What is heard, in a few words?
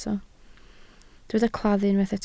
"claddu", Welsh